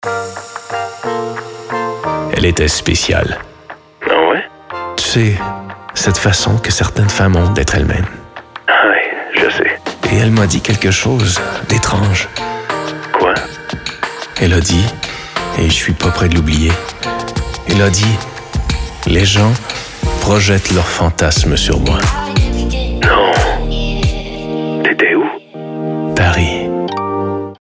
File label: french canadian